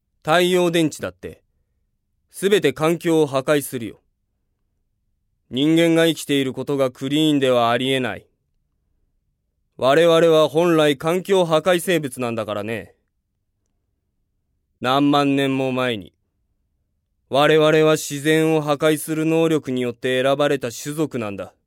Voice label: male voice